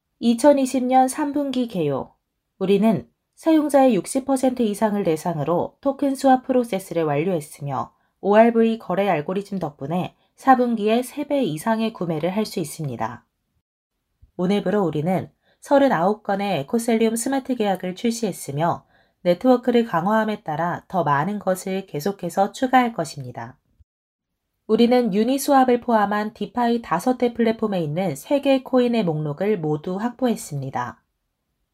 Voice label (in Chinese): 韩语女-新闻风